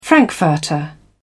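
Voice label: "frankfurter", English, British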